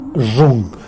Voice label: "nzhung", tlacolula; hard